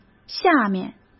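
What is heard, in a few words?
"下面", Mandarin Chinese; hsk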